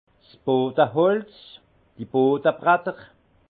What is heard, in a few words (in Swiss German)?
"bodeholz", alsace